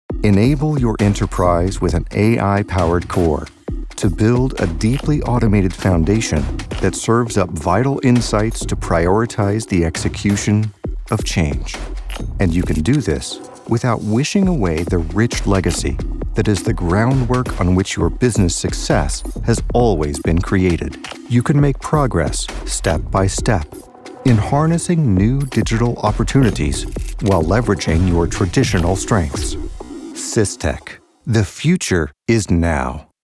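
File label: voiceover corporate inspiring, Male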